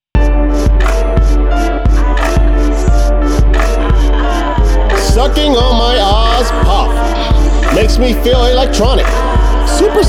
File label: Commercial VOX